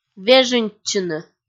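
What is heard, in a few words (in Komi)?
"вежыньтчыны", Komi, female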